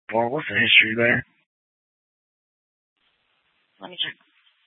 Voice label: Mesa PD Central Dispatch, Scanner